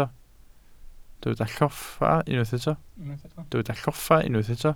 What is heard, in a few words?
"lloffa", Welsh